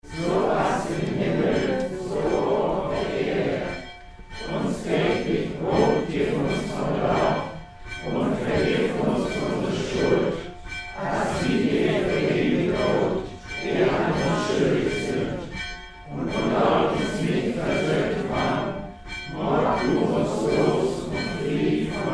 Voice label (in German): Vater unser und Kirchenglocke